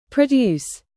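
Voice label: "produce", verb, British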